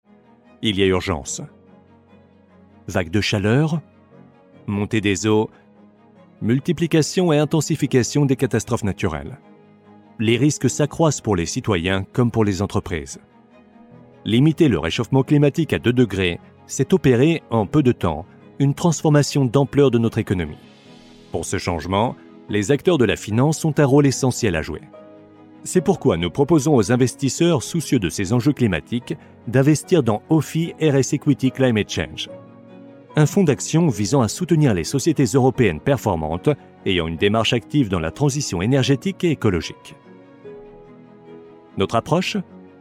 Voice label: explainer; ofi equity climate change; French voice-over